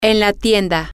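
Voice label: in the store, Spanish, female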